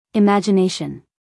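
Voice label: "imagination", American English, female; everyone-can-use-english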